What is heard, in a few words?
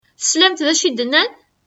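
Kabyle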